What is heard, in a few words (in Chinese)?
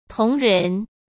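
"同人", Mandarin Chinese; hsk; chinese flashcards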